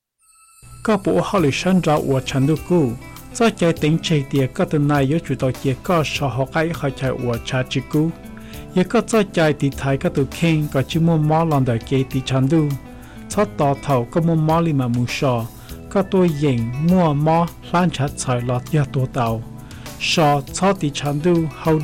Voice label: Hmong Radio